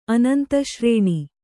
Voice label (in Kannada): "ಅನಂತಶ್ರೇಣಿ", Kannada